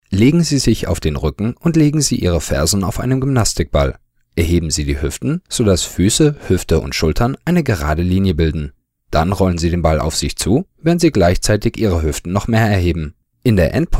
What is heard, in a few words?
German Fitness